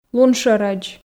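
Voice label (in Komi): лун шӧрӧдз, Komi, female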